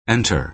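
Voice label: "enter" American English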